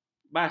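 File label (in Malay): "bas", Malay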